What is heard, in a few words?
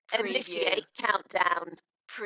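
UK female, DJ vocals; Initiate countdown; Dalekness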